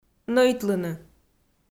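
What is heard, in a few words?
"нӧйтлыны", Komi, female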